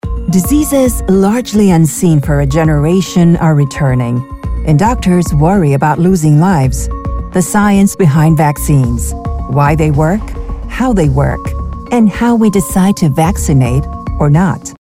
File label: Authoritative, English Narration